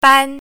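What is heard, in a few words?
"ban1", Mandarin Chinese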